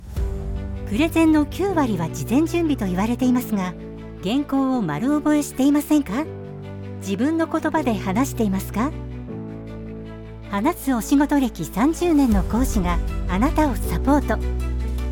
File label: japanese voice